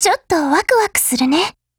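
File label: ATTACK Japanese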